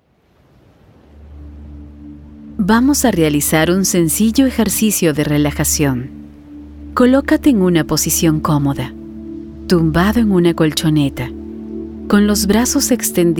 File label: meditation